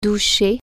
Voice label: "shower", french

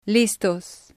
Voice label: "listos", spanish

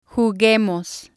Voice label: "juguemos", spanish

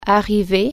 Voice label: "arrive", French

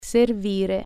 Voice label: serve, italian

